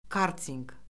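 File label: "kartinq", Azerbaijani; Orfoqrafiya Lüğəti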